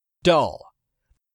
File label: "DULL", English; 3000 words